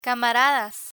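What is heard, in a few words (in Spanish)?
"camaradas", Spanish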